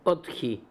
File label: "Otkhi", pronounciations; Fourth Way; Beelzebub pronounciations